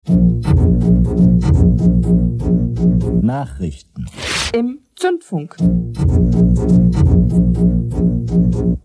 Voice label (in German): nachrichten